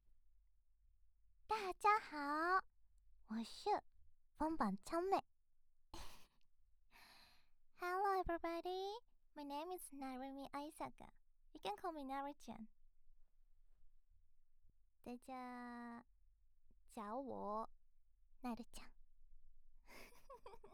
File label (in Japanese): フリートーク; 多言語対応 Multilingual; 杂谈 free talk; 把在意的那个女孩 用常识改变给堕落为肉奴隶